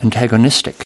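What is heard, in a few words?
"antagonistic", US English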